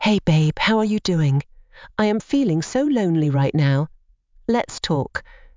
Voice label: voice US

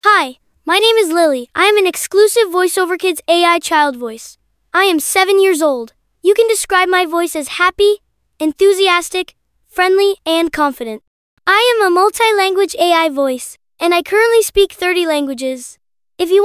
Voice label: girl7; voiceoverkids

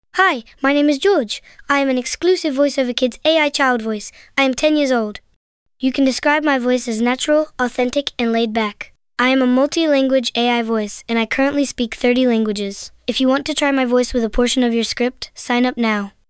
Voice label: boy10; voiceoverkids

